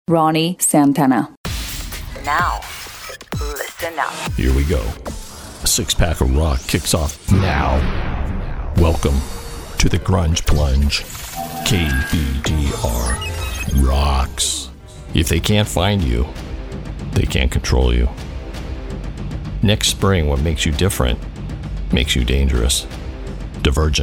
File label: narration